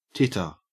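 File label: "titter", Australian English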